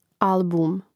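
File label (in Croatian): "album", Croatian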